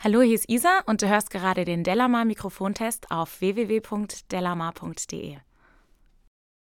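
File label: speech female, Neumann U87Ai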